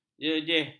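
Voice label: "jejeh", Malay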